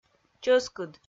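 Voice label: "чӧскыд", Komi, female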